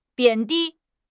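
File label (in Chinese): "贬低", Chinese; HSK6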